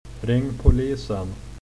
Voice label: police, swedish